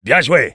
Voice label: engineer good job, fr